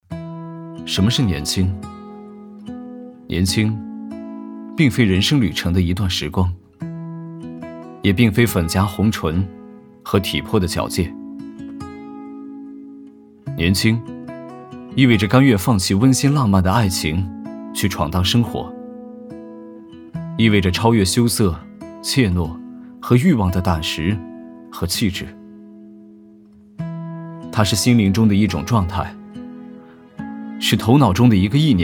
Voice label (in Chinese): 男 旁白 什么是年轻